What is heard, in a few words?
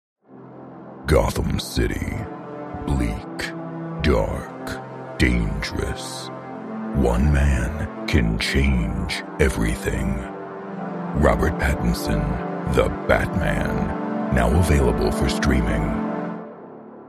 english american movie trailers